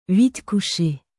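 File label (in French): "huit couche", French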